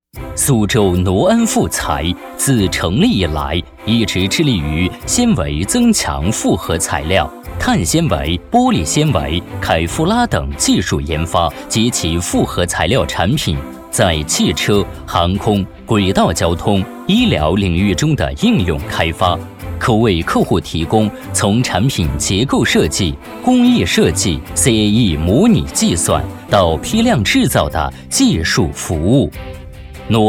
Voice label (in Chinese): 专题片 沉稳大气; 挪恩复合材料文案